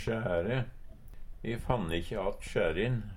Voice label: sjære, Numedalsmål; E fant ikkje att sjærin.

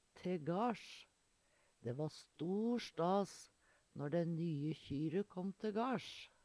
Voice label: te gasj, Numedalsmål; Uttrykk

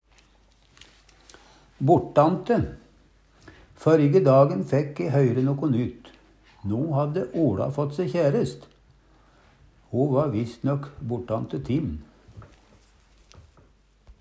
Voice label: bortante, Numedalsmål

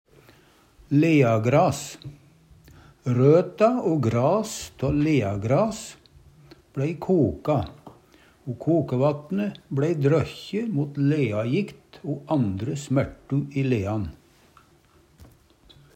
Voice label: leagras, Numedalsmål; Engsmella er giftig, men kan turkast og brukast til krøtterfor.

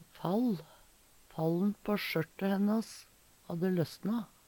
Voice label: "fall", Numedalsmål; Substantiv hankjønn; Fall`n på sjørte hennas hadde løsna.

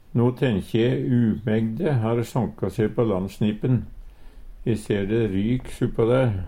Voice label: "umegd", Numedalsmål

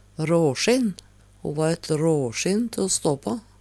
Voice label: rasjinn, Numedalsmål

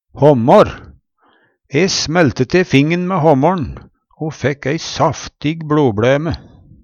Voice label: "håmmår", Numedalsmål; E smelte te fingen mæ håmmår'n o fækk ei saftig bLobLæme.

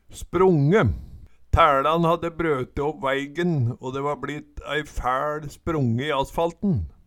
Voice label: spronge, Numedalsmål

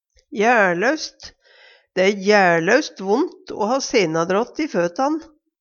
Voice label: jæLlaust, Numedalsmål

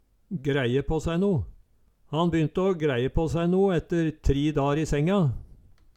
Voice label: græie på sæi no, Numedalsmål

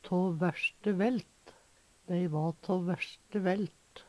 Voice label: ta vaerste vaelt, Numedalsmål

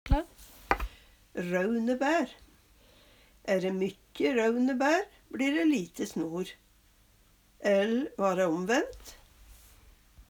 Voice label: rounebaer, Numedalsmål

